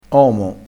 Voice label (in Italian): "omo", crespinese